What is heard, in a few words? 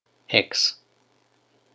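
"hex", Ancient Greek, male; ἕξ heks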